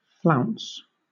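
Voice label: "flounce", British English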